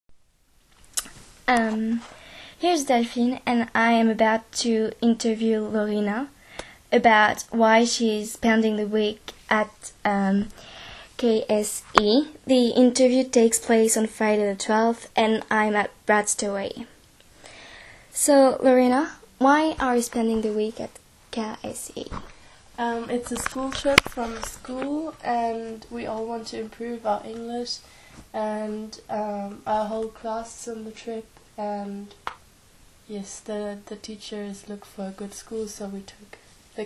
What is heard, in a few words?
German girl